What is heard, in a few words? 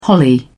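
"holly", British English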